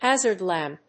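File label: "hazard lamp", English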